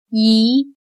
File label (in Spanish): "疑", Chino